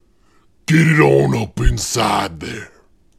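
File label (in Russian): мужской голос deep voice inside there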